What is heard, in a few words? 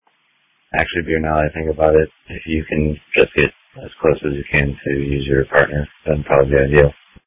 Police Tactical Encrypted